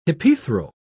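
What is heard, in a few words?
"hypethral", British English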